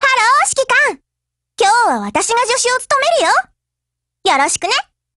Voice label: HELLO JP; GF Alarm; StenMK2Mod